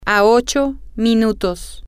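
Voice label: 8 Minutes Away, Spanish, female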